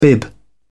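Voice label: "bib", British English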